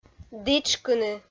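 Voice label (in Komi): "дітшкыны", Komi, female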